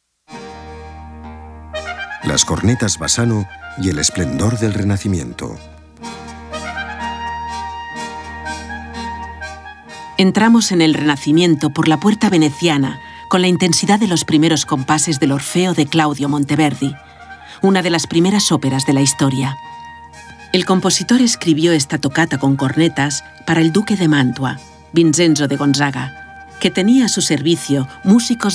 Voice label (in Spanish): audioguia; delamusica espanyol